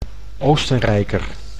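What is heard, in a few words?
"Oostenrijker", Dutch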